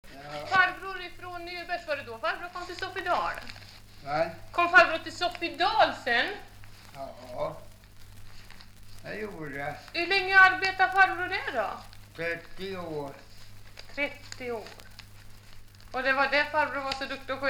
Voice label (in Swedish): dialekter; Folkminnen